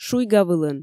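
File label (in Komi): "шуйгавылын", Komi, female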